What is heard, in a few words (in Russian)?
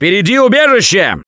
Safe Spot Ahead, left4dead2 russian, Survivor Voice; Gambler